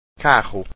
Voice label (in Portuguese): "carro", Portuguese